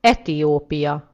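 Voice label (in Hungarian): "ethiopia", Hungarian; gcompris; geography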